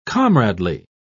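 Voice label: "comradely", British English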